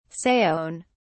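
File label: "saone", British English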